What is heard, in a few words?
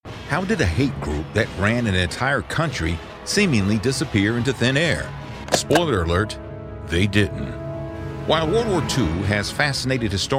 Narration